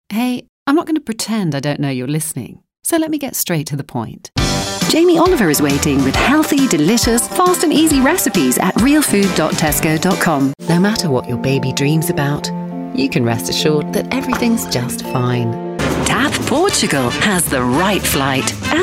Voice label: Commercial, English International; 30 secs; Commercial demo